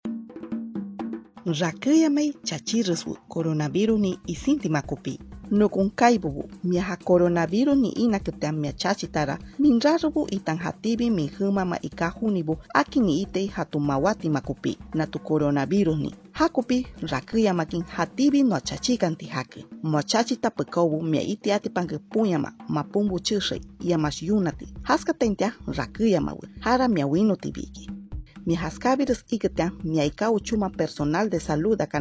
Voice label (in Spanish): shipibo konibo, spot de radio; vacunate sin miedo contra el coronavirus